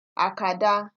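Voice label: "acada", Nigerian Pidgin, female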